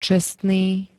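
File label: "čestný", Slovak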